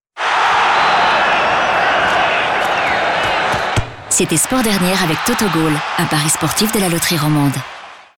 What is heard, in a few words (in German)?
Werbung FR CH, flippig frech verspielt